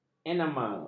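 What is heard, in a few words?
"enamel", Malay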